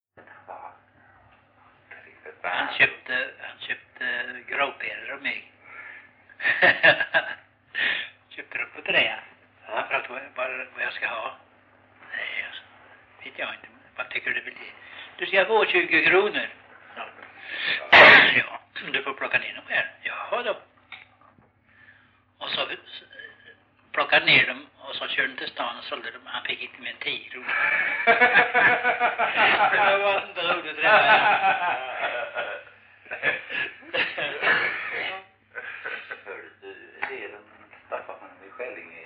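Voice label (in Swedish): Rolfstorp Halland, dialekter; Folkminnen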